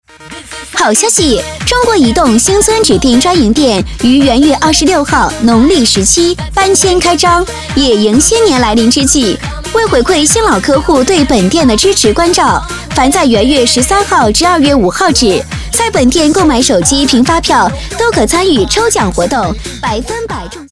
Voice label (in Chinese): 激情促销; 中国移动